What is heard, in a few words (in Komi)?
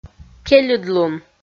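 "кельыдлун", Komi, female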